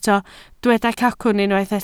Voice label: "cacwn", Welsh